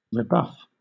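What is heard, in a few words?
"rebuff", British English; pronunciations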